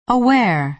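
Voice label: "aware", American English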